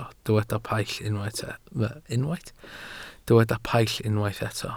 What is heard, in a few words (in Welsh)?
"paill", Welsh